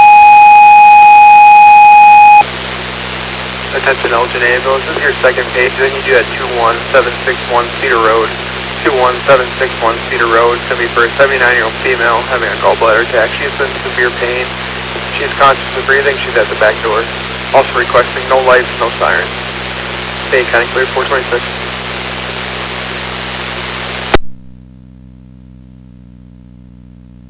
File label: Ambulance, dispatch; Elgin